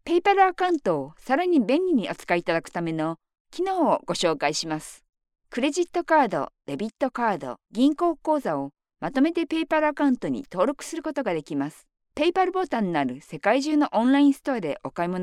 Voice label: female japanese voice over sales

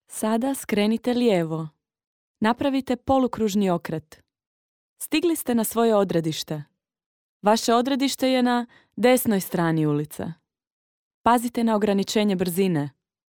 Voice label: female croatian voice over talent narrative

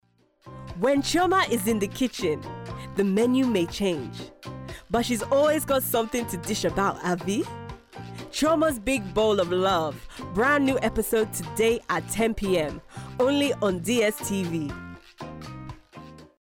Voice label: female african voice over upbeat, african english

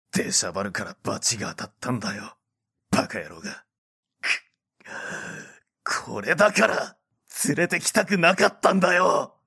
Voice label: male japanese voice over character

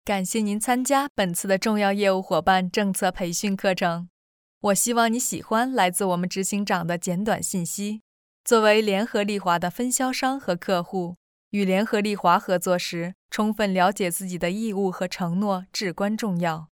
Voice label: female chinese voice over narrative, mandarin

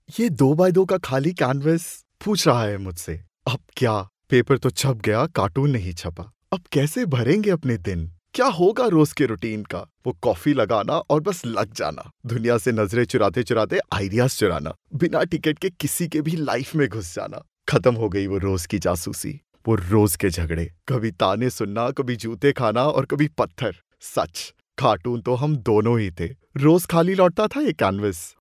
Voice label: male hindi voice over talent sales

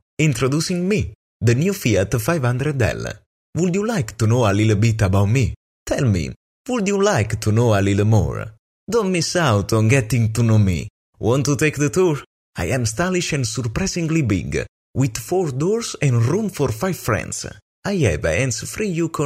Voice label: male italian voice over english

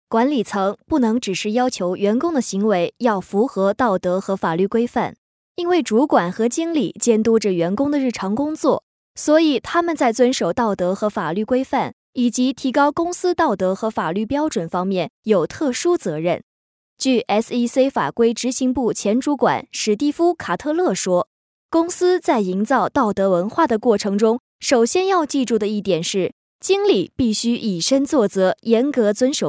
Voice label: female chinese voice over narrative, mandarin

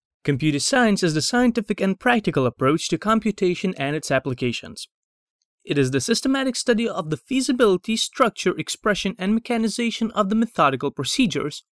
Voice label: male lithuanian voice over talent english

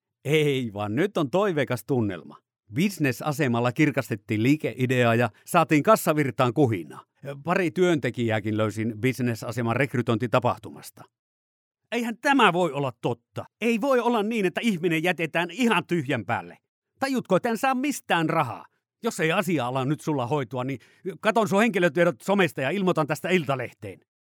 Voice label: male finnish voice over upbeat

